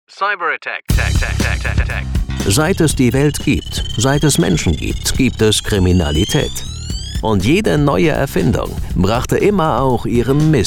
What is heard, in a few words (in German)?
sprecher talk einspieler